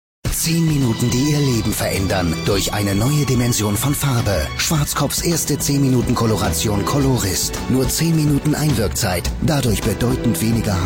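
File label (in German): german male commercial; voice demos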